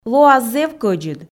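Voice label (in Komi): лоас зэв кӧдзыд, Komi, female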